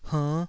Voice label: "hernn5", Taiwanese syllable